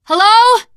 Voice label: lost call, teengirl; voice; source engine; left 4 dead 2; survivor